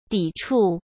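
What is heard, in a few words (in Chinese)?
"抵触", Mandarin Chinese; hsk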